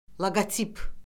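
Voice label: "loqotip", Azerbaijani; Orfoqrafiya Lüğəti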